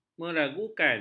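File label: "meragukan", Malay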